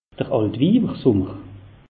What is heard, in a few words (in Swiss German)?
"altwiiwersummer", alsace; meteo